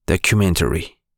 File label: Spanish Documentary